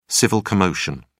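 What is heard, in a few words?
"civil commotion", British English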